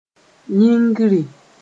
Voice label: "yĩngri", Mooré

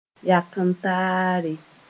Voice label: "yak-n-taare", moore

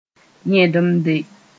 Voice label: "yẽdemde", Mooré; clogged

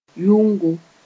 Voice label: "yʋngo", Moore

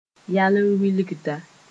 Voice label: "yalẽ wilgda", Moore

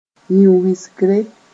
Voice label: "yĩn-wɩsgre", Mooré

